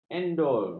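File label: "endul", Malay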